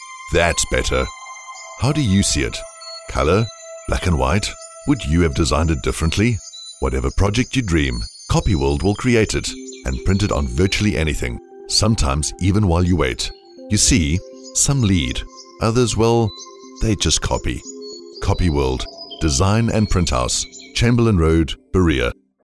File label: Radio AD; Copyworld Imagine